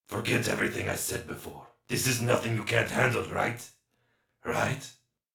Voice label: Radio Play; Warframe; Alerts; Darvo Rescue; en